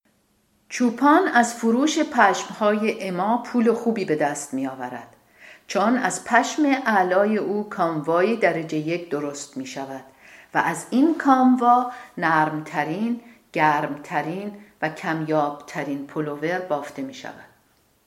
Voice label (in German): farsi